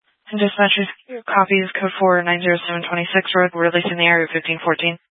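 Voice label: Sheriff Dispatch, Scanner audio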